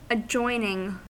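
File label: "adjoining", US English; pronunciations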